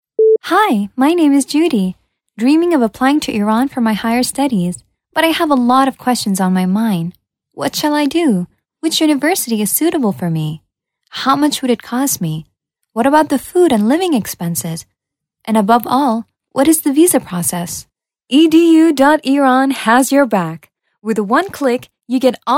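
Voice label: English Woman; zaban kharjeh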